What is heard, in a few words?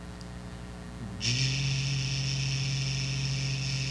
phones